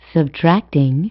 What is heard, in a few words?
Announce Voice Subtracting